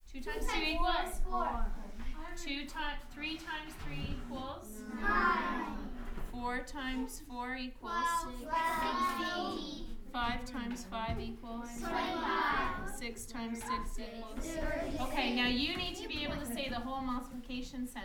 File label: Kids, Class, Recite, Table, Try; Electa Quinney